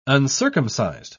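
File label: "uncircumcised", British English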